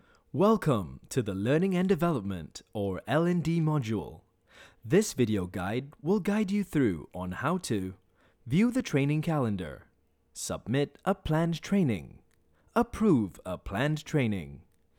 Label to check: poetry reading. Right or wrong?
Wrong